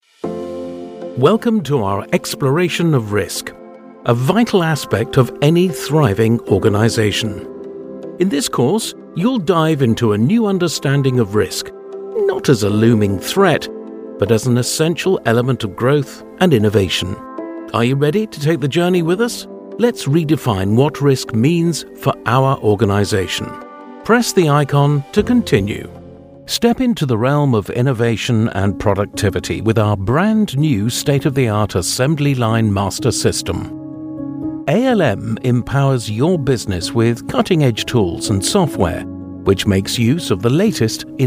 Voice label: explainer reel

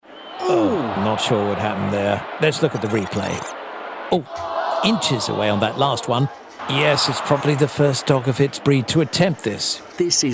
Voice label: dog commentary

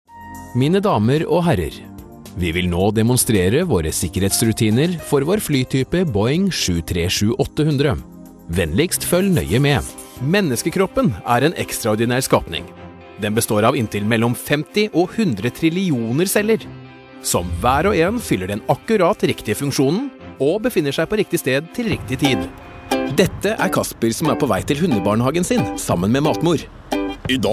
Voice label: norwegian voice, corporate

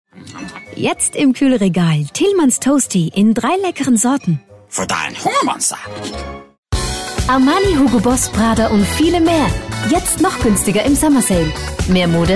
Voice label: german, commercial german

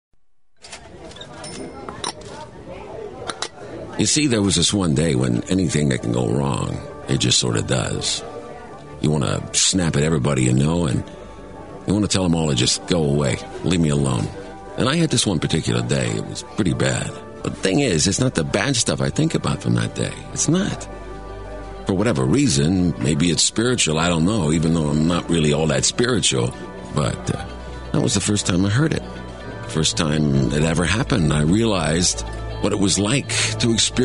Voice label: deep american

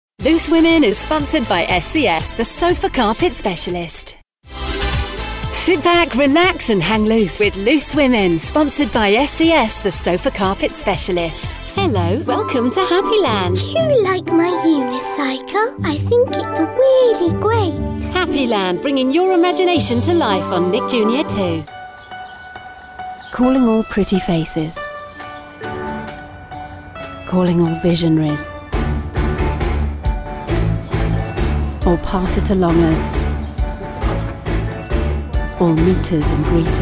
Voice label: tv promos

